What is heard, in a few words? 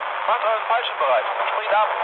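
German bailout mood low, speech; War Thunder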